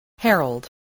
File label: "herald", English, spelling